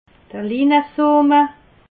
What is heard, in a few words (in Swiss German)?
"linesoot", alsace